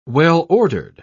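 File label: "well-ordered", British English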